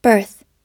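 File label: "birth", American English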